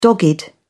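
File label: "dogged", British English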